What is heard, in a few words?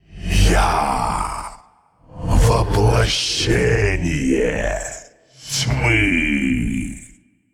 Male Elemental Play; Hearthstone; датамайн обновления 5.0